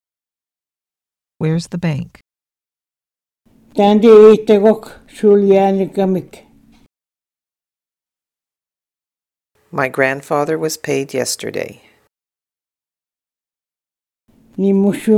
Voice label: Moose Cree money; Linguistic Atlas

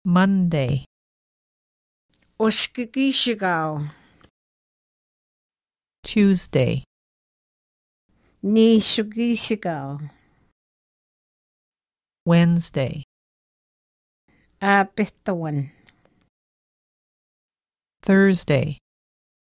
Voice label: Swampy Cree days; Linguistic Atlas

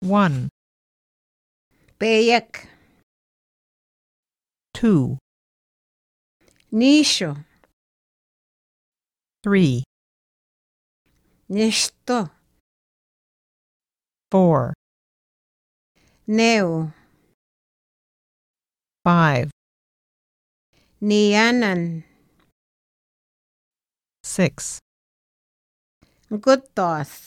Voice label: Swampy Cree numbers; Linguistic Atlas

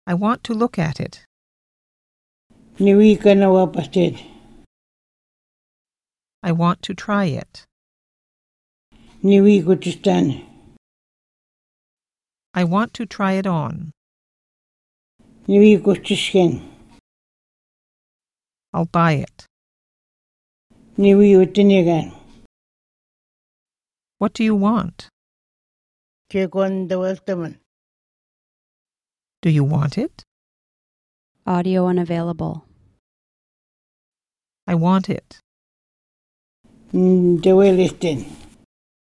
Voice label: Moose Cree; Linguistic Atlas

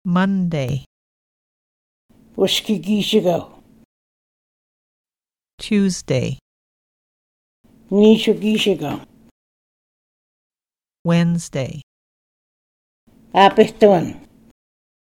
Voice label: Moose Cree days; Linguistic Atlas

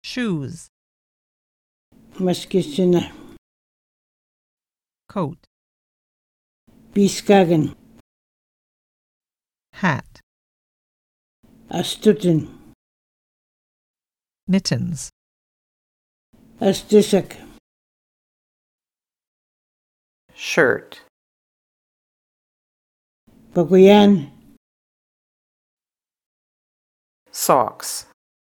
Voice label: Moose Cree clothing; Linguistic Atlas

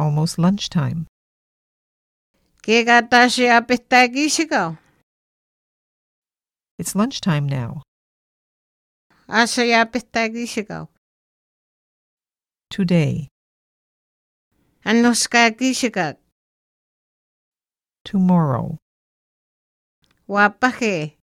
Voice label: Swampy Cree time; Linguistic Atlas

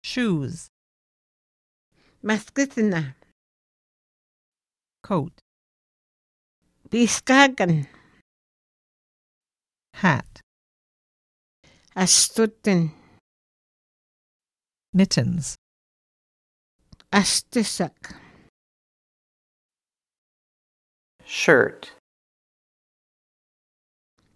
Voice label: Swampy Cree clothing; Linguistic Atlas

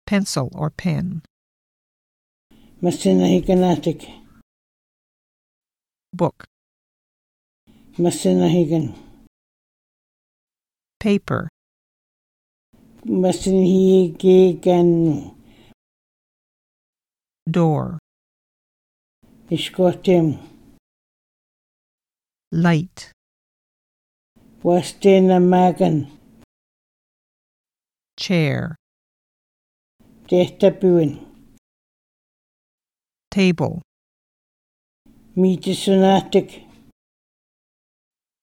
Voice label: Moose Cree; Linguistic Atlas

